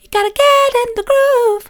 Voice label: youve gotta get in the groove soft; vocal

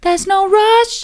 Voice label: theres no rush soft; vocal

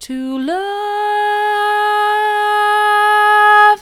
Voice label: to love soft; vocal

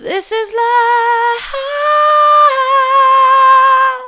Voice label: this is love soft; vocal

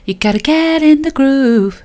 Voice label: youve gotta get in the groove soft; vocal

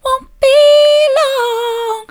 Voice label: wont be long soft; vocal

